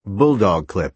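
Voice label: "bulldog clip", American English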